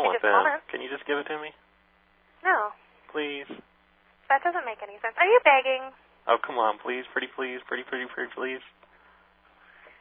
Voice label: paging extension; fred meyer